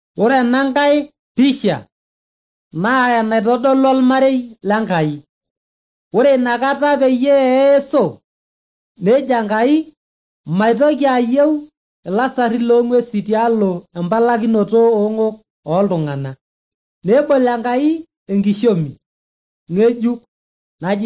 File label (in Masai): maasai bsv nt drama; good news; bible